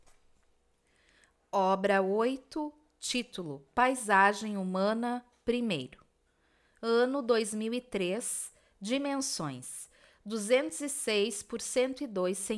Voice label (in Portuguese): audiodescricao; Galeria Virtual